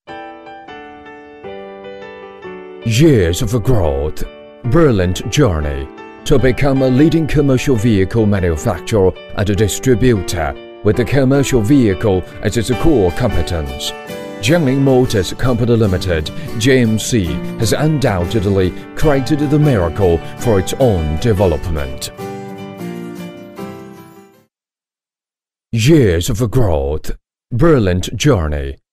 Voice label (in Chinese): 英男 汽车; 江铃JMC